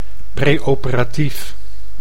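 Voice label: "preoperatief", Dutch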